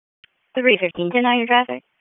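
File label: Police District Dispatch; wake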